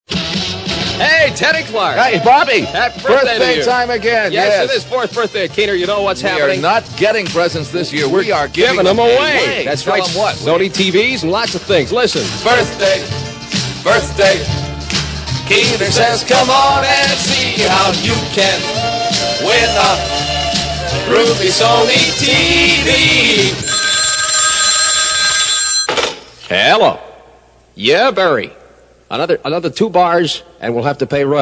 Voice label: Transistor Radio Giveaway Promo; WKNR; Fourth Birthday; 1967; Sony